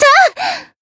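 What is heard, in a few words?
Voice attack, Japanese; ewella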